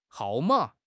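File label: "hao4 ma3", Mandarin Chinese; HSK; HSK4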